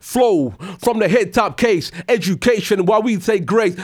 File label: RAP PHRASE